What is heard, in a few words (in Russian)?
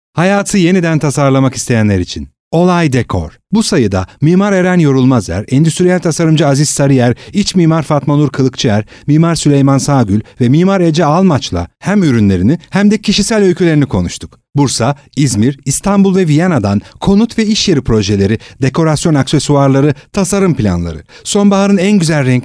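голоса, Turkish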